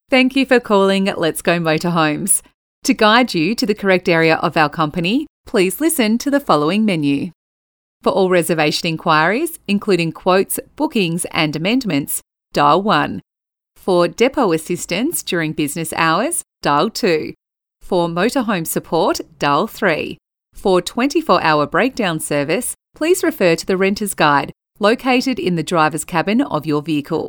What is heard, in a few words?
AU PHONE